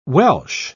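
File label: "welsh", English word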